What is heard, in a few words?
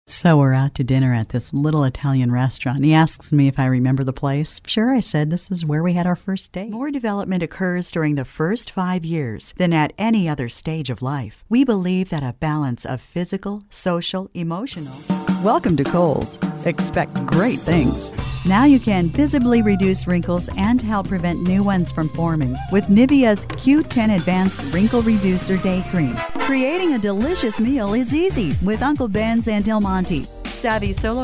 Commercial Video